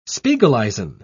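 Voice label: "spiegeleisen", British English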